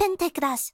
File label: penta crash, vocal; Techmino; mono